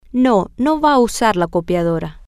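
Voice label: no he is not going to use the copier, spanish, female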